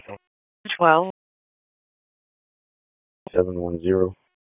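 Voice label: Raleigh Police Northeast District Dispatch; p25; wake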